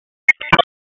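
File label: Raleigh Police North District Tactical, p25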